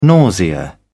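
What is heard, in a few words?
"nausea", British English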